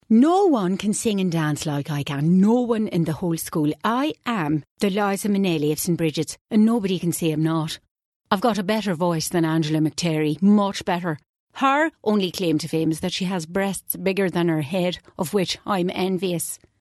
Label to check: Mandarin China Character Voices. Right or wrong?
Wrong